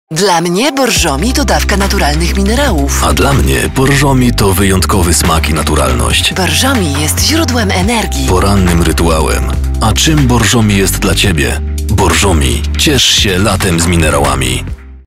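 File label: Polish, male, commercial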